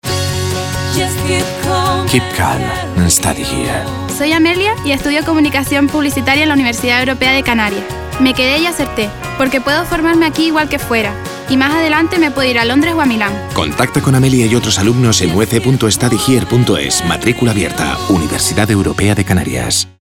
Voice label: Testim RADIO mix emisión